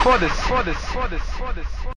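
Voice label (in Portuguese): "foda-se", Portuguese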